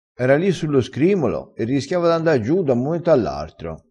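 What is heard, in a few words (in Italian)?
"scrimolo", vocabolario dialettale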